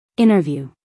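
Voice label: "interview", American English female; everyone-can-use-english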